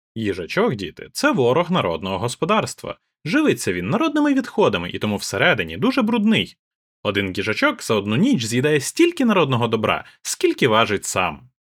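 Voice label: HyperX QuadCast 2 processed, microphone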